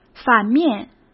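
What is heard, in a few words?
"反面", Mandarin Chinese; chinese flashcards; hsk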